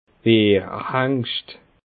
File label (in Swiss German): "bierhengst", Bas Rhin